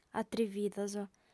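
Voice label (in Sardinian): "atrividos", female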